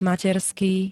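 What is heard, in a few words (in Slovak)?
"materský", Slovak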